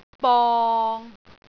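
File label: "bong6", Cantonese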